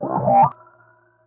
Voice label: roboty wrop vox hit, Voice